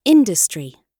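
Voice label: "industry", British English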